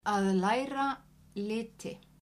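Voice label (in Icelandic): "læra liti", Icelandic, female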